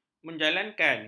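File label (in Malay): "menjalankan", Malay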